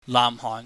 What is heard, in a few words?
"naahmhohn", Cantonese